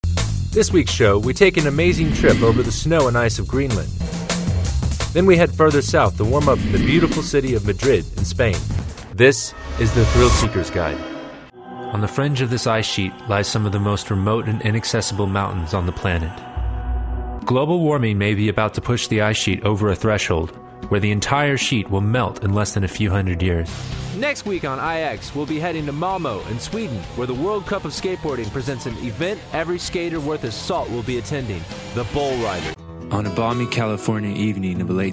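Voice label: English US, General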